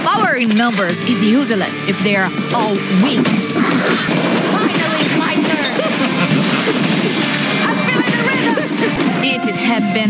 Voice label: dubbing characters real person female brazilian portuguese voiceover